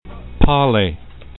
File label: "pale", esperanto